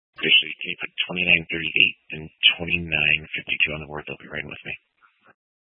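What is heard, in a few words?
Sheriff Dispatch, scanner audio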